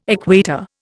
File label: "equator", Indian